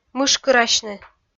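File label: "мышкырасьны", Komi-Zyrian, female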